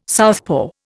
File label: "southpaw", Indian